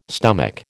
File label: "stomach", American